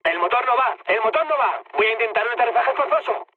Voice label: damaged engine, mood med, speech; War Thunder